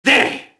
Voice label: mobster alert group; Max Payne

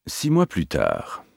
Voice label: SixMonths, French; XBOX; APEX

